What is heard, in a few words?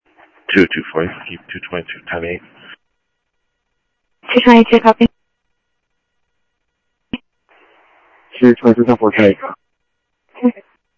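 Sheriff West County, scanner audio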